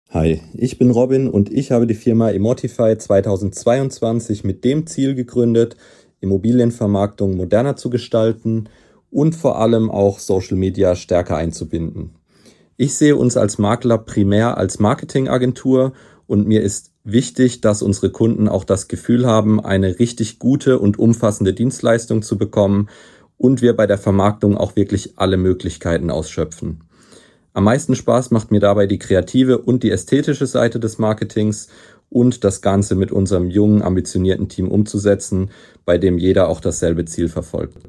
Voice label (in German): sprachnachricht